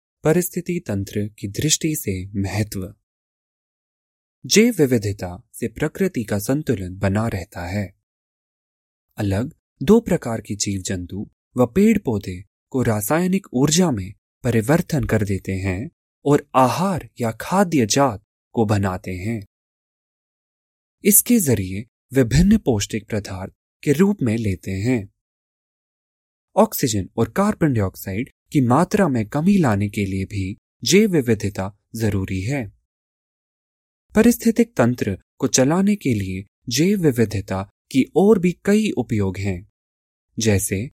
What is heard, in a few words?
Hindi; PARISTHITIK TANTRA